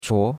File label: "chuo2", chinois; Cours-de-Chinois